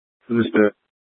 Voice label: Light Rail Emergency, scanner